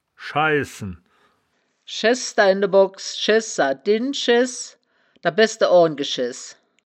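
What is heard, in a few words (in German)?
"schaissen", wittlicher woerterbuch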